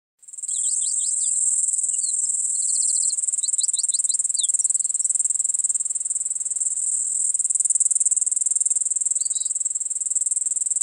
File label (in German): Text plus Musik; Traumbilder; Geraeusche